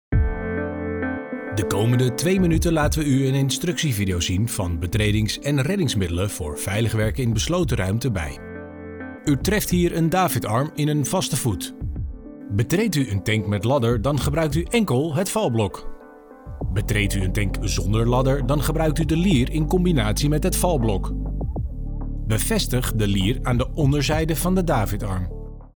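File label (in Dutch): instructie video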